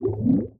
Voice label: Zombie